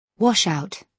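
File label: "washout", British English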